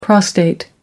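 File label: "prostate gland", British English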